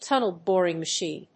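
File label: "Tunnel Boring Machine", English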